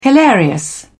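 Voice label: "hilarious", British English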